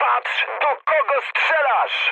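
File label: player friendly fire, mood high, Polish speech; War Thunder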